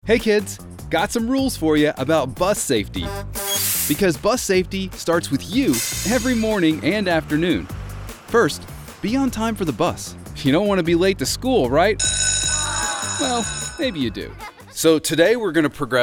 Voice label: Tutorial eLearning Narration